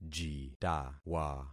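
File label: "jitawa", concatenated syllables; Affixing experiment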